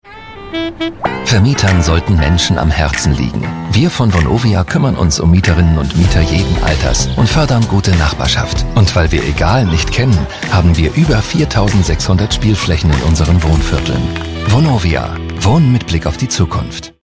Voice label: Werbung, DE; Vonovia

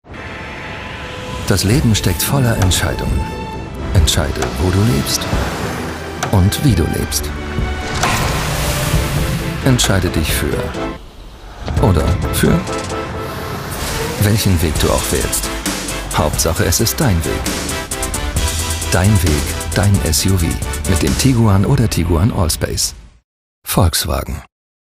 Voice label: DE Werbung; VW Tiguan

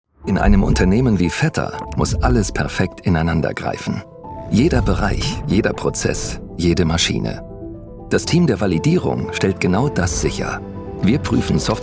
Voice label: Imagefilm, DE; Cousin; Vetter

